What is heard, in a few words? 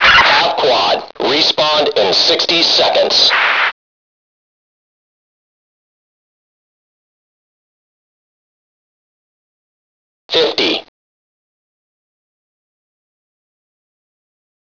fem; lmctf